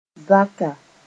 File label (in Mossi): "zaka", moore